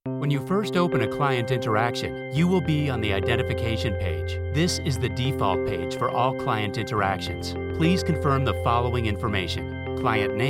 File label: eLearning with music